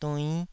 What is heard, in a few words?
"duinn5", taiwanese syllables